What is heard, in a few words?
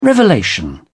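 "revelation", British English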